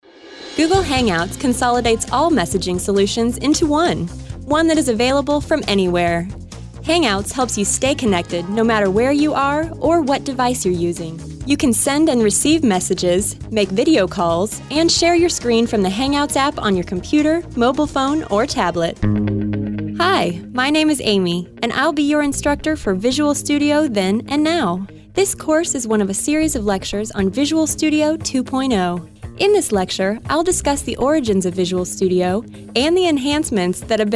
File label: Business Narration